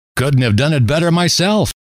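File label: couldn't have done it better myself, male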